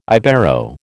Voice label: "Ibero", American